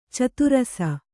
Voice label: "ಚದುರಸ", kannada

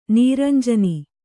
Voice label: "ನೀರಂಜನಿ", Kannada

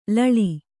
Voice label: "ಲಾಳಿ", Kannada

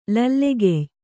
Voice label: "ಲಲ್ಲೆಗೆಯ್", kannada

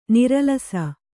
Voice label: "ನರಲಿಸು", kannada

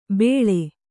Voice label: "ಬೇಳೆ", Kannada; bēḷe; noun

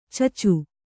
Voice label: "ಚಚು", Kannada

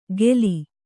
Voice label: "ಗೇಲಿ", kannada

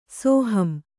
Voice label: "ಸೋಹಂ", Kannada

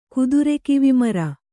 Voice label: "ಕುದುರೆಕಿವಿಮರ", Kannada

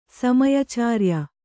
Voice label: "ಸಮಯಚಾರ್ಯ", kannada

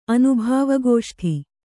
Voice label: "ಅನುಭಾವಗೋಷ್ಠಿ", kannada

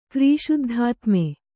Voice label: "ಕ್ರೀಶುದ್ಧಾತ್ಮೆ", Kannada

